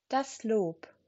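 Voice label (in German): "Lob", German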